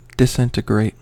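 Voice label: "disintegrate", American English; pronunciations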